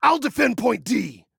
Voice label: ILL DEFEND, Male